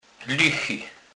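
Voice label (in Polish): "lychy", Kurpie dialect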